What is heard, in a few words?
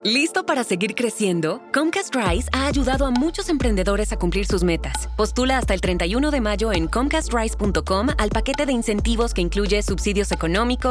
Spanish; Comcast Rise 2024